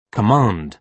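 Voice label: "command", British English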